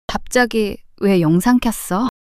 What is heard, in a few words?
shy